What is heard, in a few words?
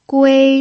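"gui" first tone, pinyin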